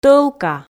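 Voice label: "тӧлка", Komi, female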